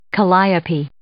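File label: "calliope", English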